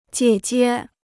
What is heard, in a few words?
"姐姐", Chinese